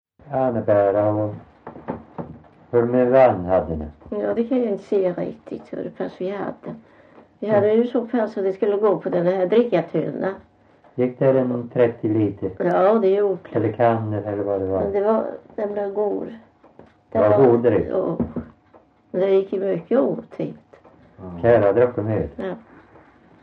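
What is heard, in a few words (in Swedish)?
Värö Halland, Svenska sagor dialekter; Folkminnen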